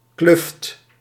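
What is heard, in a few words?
"kluft", Dutch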